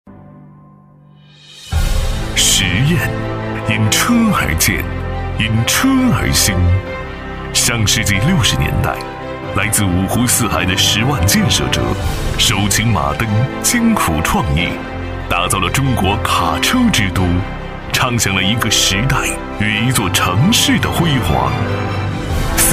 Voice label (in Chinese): 男 企业宣传片 大气宣传; 东风卡车之都焕新而生 美好共鉴; 时尚大气